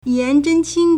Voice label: "yan2zhen1qing1", Chinese